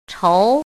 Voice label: "chou2", pinyin